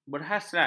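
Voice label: "berhasrat", Malay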